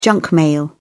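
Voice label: "junk mail", British English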